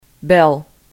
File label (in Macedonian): "bel", Macedonian